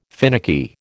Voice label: "finicky", American